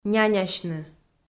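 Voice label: "нянясьны", Komi, female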